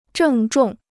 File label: "郑重", Chinese